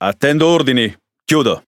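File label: Italian speech Pilots Bomber at position; World Of Warships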